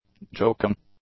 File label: "joking", english; adjectives